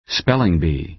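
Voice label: "spelling bee", American English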